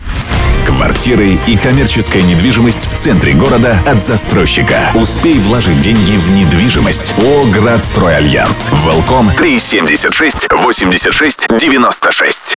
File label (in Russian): РЕКЛАМНЫЙ РОЛИК; ГрадСтройАльянс